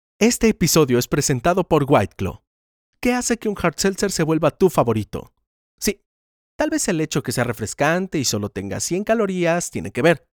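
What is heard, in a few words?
corporativo, Mexican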